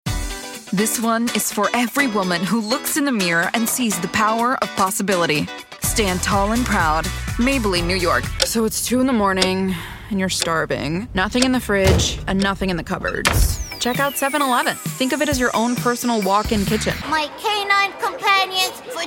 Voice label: english american commercial, mom; voice actor

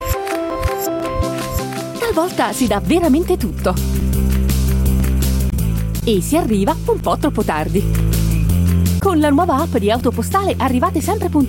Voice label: italian commercial

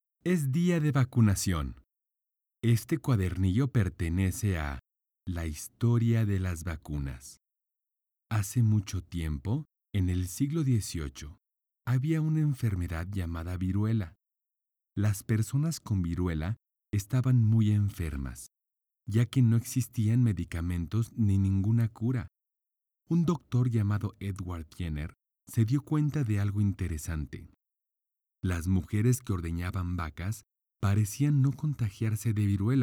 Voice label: spanish latin american narration

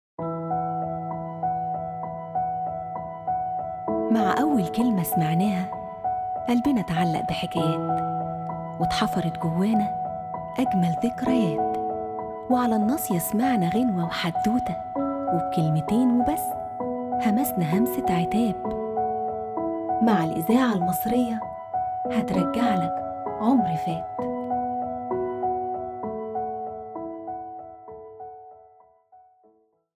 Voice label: arabic promos

